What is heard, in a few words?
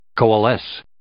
"coalesce", English